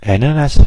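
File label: pineapple, Danish; fruit; cartoon; stamps; food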